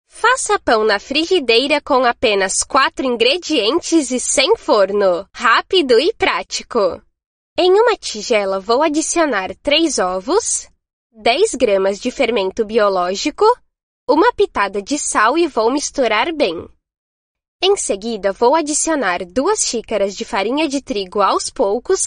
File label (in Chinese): 葡萄牙语女 外语 活力